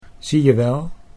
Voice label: "zie je wel", Dutch

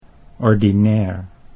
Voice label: "ordinair", Dutch

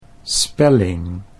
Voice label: "spelling", Dutch

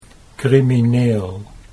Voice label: "crimineel", Dutch

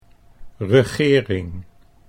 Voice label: "regering", Dutch

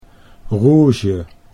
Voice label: "rouge", Dutch

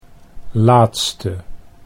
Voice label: "laatste", Dutch; 'last'

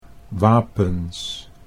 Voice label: "wapens", Dutch

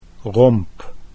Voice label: "romp", Dutch

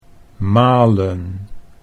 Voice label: "malen", Dutch

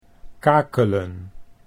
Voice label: "kakelen", Dutch

